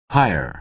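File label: "hire", American English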